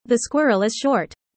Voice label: user fast; how tall